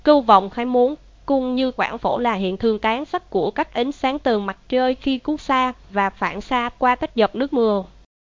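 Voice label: speaker, American English; piper-voices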